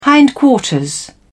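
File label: "hindquarters", British English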